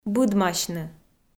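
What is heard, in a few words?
"быдмасьны", Komi, female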